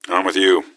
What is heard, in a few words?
answer, gasmask; scmod